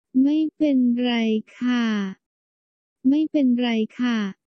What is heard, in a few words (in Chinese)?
沒關係女